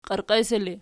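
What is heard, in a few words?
"qierqesi" ergative, Archi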